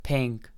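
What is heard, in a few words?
"pink", English; RhymeTest; sink not rhyming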